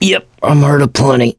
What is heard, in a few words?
going to die, survivor voice mechanic